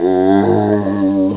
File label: zombie pain